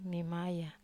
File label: "mamaya", Wamesa